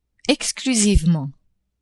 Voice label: "exclusivement", French; adverbs